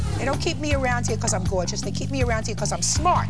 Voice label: quip, type in your words and hear them spoken to you